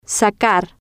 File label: "sacar", Spanish